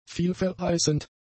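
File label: "vielverheißend", German; adjectives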